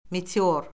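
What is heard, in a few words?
"meteor", Azerbaijani; Orfoqrafiya Lüğəti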